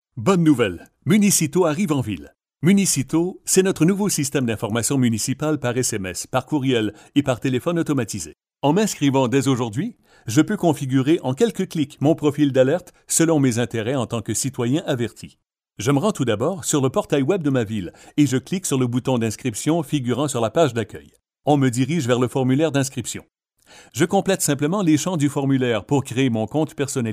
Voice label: french canadian corporate